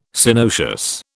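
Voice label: "Synoecious", British English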